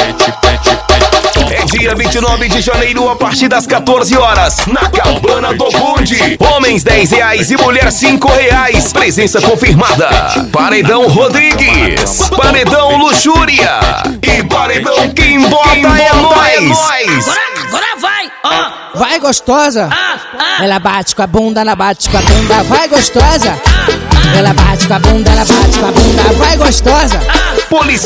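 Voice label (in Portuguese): vinheta encontro de som automotivo; gongogi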